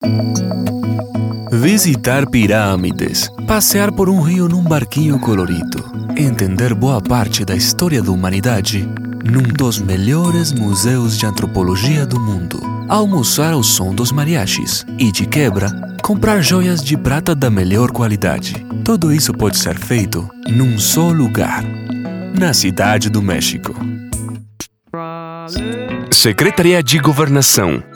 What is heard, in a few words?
voiceover foreign language spanish; New York